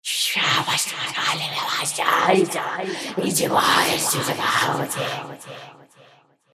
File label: Creepy Whisper Chorus